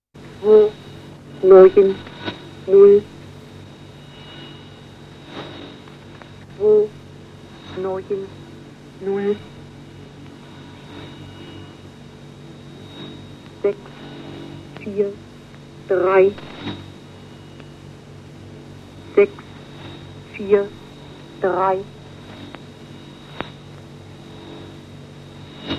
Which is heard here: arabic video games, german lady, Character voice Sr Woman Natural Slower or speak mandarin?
german lady